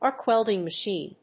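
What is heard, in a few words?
"ARC WELDING MACHINE", English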